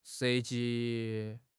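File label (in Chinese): "涉及", Chinese; HSK6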